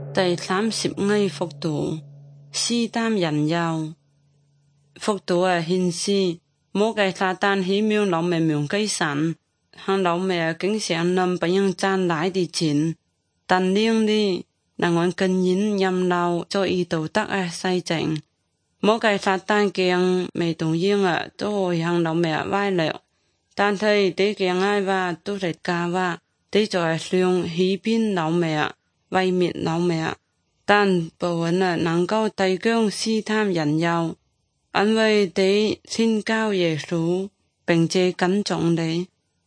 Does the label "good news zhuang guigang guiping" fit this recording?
Yes